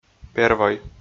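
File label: "первой", Komi, male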